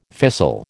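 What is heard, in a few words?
"fissile", American English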